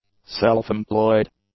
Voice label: "self-employed", English; adjectives